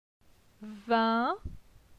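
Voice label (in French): "Vin", French; phonetique; la syllabe in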